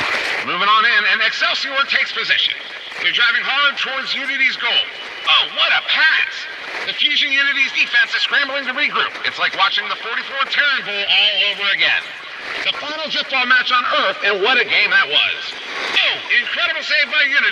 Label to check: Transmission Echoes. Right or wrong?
Right